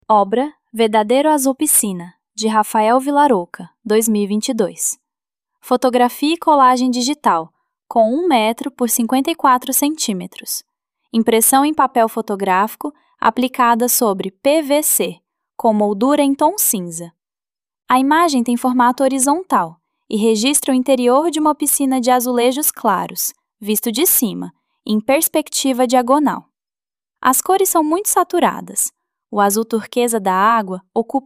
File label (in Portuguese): Audiodescricao; obra Verdadeiro Azul Piscina